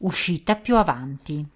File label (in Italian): Ah Exit, Italian, female